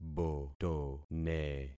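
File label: "botone", concatenated syllables; Affixing experiment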